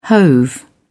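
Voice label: "hove", British English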